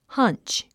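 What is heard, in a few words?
"hunch", English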